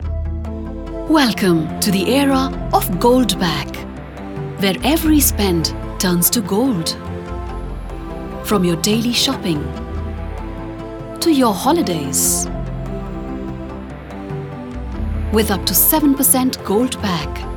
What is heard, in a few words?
TVC eng